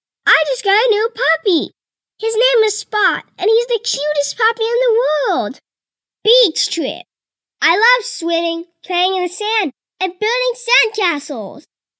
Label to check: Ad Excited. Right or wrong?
Right